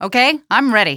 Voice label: ok im ready, female; Half-Life 2